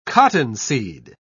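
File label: "cottonseed", British English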